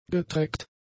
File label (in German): "getreckt", german; adjectives